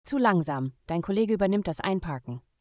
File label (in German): minigame timeout, voice de; logistik